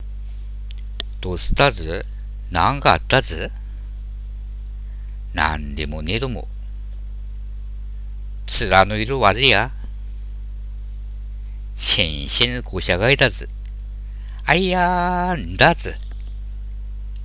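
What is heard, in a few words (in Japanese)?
母と息子, 秋田弁; 子供が元気がない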